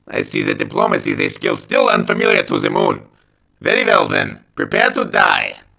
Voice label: plead; svencoop; fortified